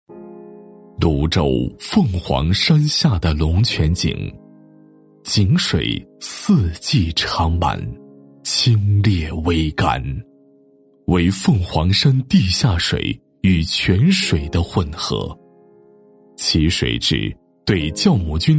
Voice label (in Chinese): 男 广告 质感, 磁性高端 大气浑厚; 泸州; 男1014-广告【泸州 质感】